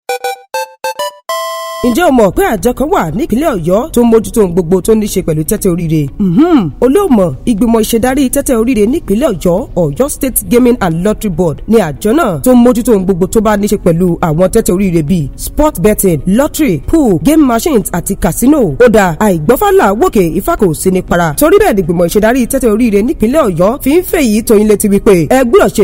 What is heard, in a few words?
warning jingle Yoruba; OYSG